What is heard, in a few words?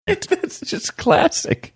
laughing saying it is its just classic